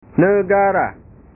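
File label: "negara", Indonesian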